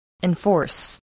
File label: "enforce", spelling